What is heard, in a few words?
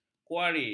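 "kuari", Malay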